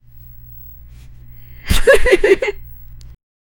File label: make the characters sly s, sly